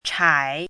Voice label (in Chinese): "chai3", 汉字语音; chinese-voice